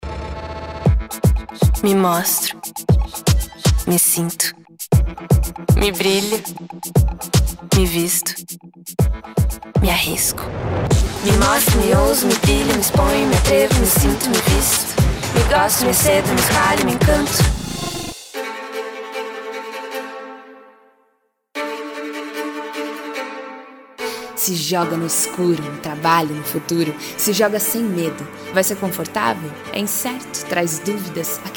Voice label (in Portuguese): Musicada